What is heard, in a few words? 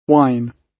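"wine", British English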